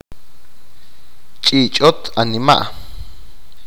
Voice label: Action implying magical harm by evil desires, achi